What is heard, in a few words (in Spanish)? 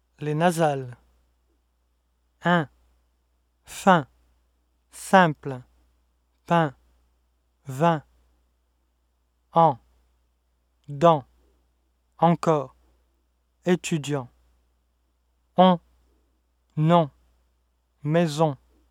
nasales